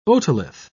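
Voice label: "otolith", British English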